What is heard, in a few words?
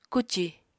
"kucì", Apatani